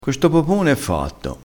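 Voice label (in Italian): "fatto", vocabolario dialettale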